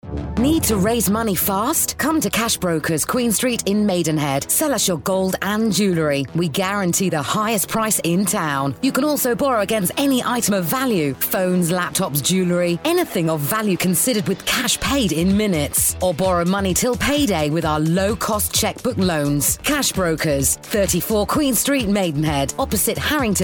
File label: radio advert; brokers